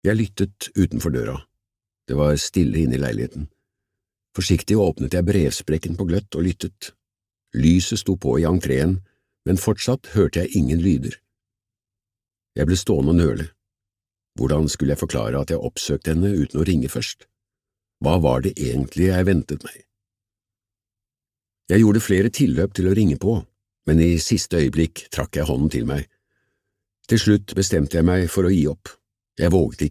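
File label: Norwegian Audiobook Male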